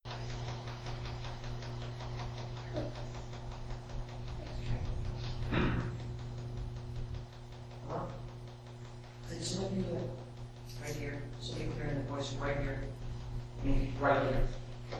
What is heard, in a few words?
voices throat clear